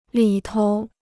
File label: "里头", Chinese